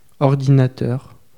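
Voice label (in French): "ordinateur", French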